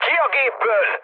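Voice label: Hungarian bailout mood low, speech; War Thunder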